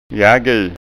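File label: "jagu", Scanian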